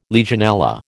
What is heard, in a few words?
"Legionella", American